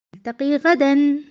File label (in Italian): Arabo